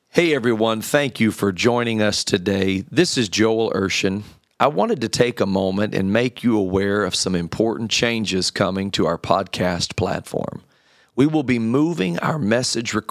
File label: Special Announcement